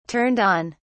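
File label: "turned on", British English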